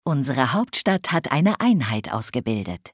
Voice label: City First Construction, Speech German Advisors; Civ5